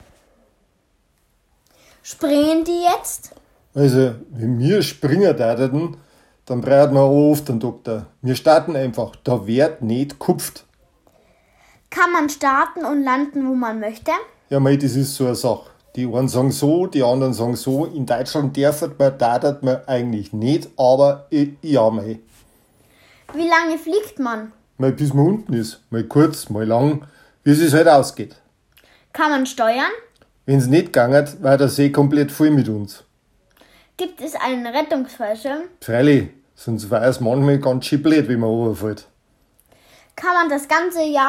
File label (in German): FAQ Bayerisch; Nichtflieger